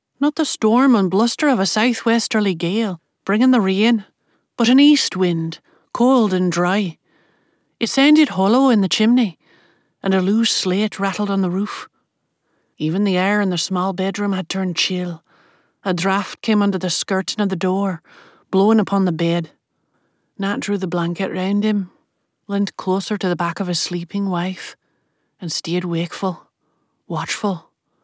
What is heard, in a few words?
Accent Demo Belfast Irish